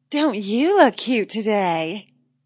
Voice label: Wmn Sys Dnt U Lk Ct Sxy, Woman Says Don't You Look Cute; Talking